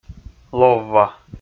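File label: "ловва", Komi, male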